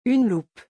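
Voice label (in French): "une loupe", French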